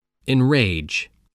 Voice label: "enrage", spelling

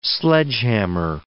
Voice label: "sledgehammer", words

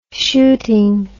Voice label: "shooting", English

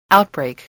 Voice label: "outbreak", English voice

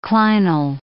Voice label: "clinal", English

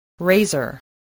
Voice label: "razor", english

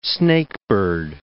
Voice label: "snakebird", english

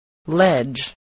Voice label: "ledge", English

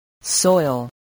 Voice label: "soil", English voice

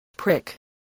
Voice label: "prick", voice, spelling

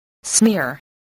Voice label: "smear", voice, spelling

